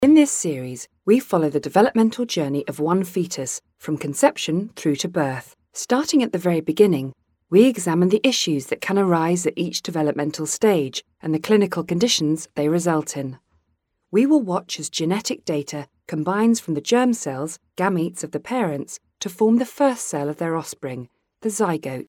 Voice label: Informative Clear Eye Exams